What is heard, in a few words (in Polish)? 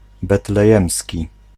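"betlejemski", Polish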